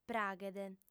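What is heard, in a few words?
"pràghede", Sardinian, female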